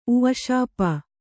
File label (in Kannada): "ಉಃಶಾಪ", Kannada